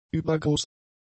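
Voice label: "übergroß", German; adjectives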